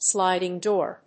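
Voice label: "sliding door", English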